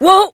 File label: Horse Stop Adult; Duviri